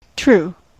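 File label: "true", US English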